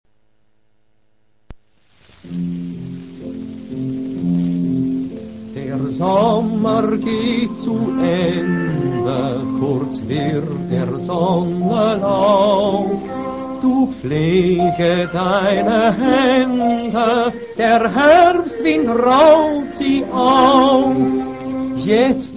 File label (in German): kaloderma werbung